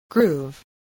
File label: "groove", voice